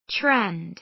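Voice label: "trend", American English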